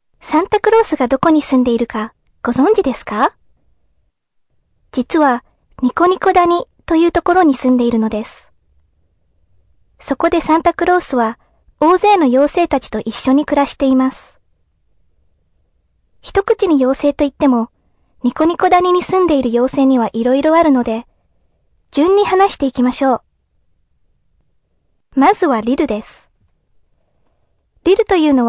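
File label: Japanese female voice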